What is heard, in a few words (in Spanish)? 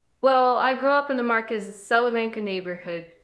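Voice Acting es F, Spanish, female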